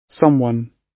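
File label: "someone", British English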